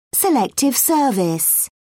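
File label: "selective service", British English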